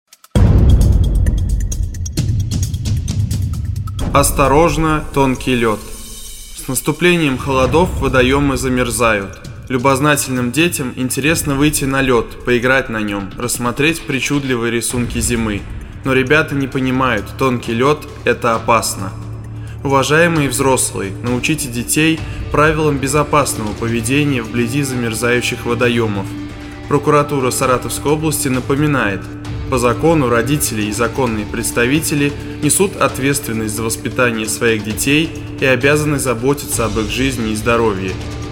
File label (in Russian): reklama; audio soc reklama tonkij ljod